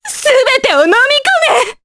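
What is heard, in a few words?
Vox Skill Japanese; Maria; kingsraid; jp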